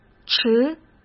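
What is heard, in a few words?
"迟", Mandarin Chinese; hsk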